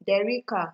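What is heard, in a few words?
"derica", Nigerian Pidgin, female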